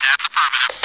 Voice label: radio yes